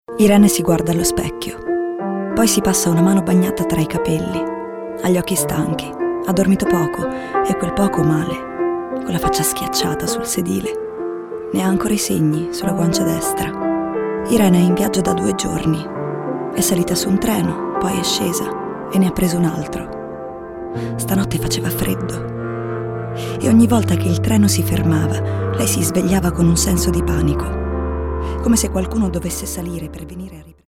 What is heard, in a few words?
female italian comercial; ideasonora